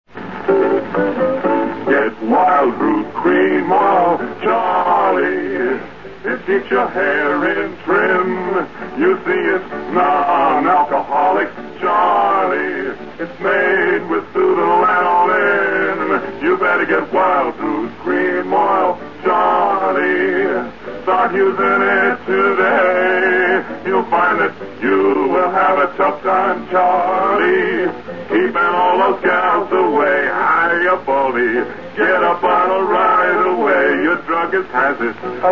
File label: Vintage Commercials; Wild Root Cream Oil